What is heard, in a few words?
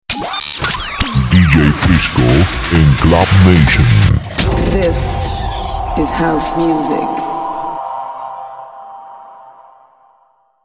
jingle; club nation